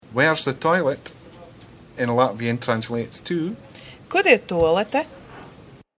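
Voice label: toilet, Doric, north east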